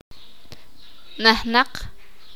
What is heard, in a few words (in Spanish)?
"lleno", Sakapulteko